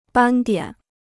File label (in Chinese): "斑点", Chinese